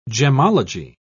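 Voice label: "gemmology", British English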